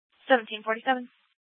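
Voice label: Mesa Police Department Superstition Dispatch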